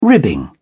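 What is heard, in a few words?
"ribbing", British English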